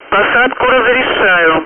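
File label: Speech, Russian, air traffic control; cleared to land; DCS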